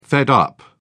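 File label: "fed up", British English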